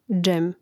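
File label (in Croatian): "džem", hrvatski; mreznik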